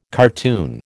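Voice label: "cartoon", American English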